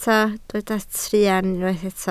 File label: "truan", Welsh